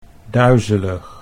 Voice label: "duizelig", Dutch